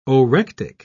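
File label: "orectic", British English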